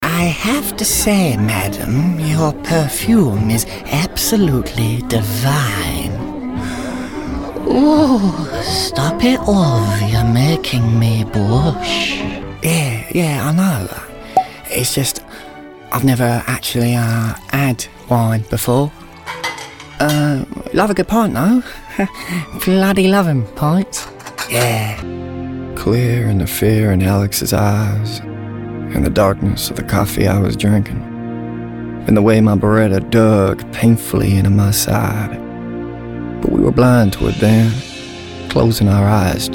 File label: Character Reel